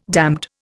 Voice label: "damned", Indian

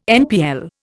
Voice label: "NPL", Indian

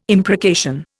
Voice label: "imprecation", Indian

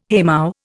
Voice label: "haymow", Indian

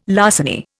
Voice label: "larceny", Indian

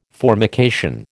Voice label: "formication", American

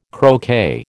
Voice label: "croquet", American

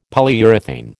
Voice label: "Polyurethane", American

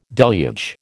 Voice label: "deluge", American

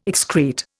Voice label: "excrete", Indian

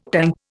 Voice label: "tanka", Indian

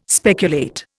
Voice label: "speculate", Indian

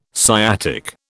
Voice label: "sciatic", British

